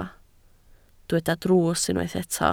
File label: "drws", Welsh